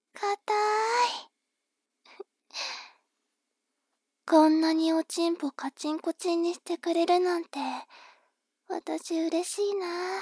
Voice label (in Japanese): 妹 台詞